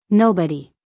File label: "nobody", US female; 1000 hours